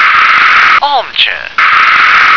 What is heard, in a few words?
"armchair", English